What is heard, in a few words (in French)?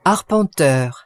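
"arpenteur" French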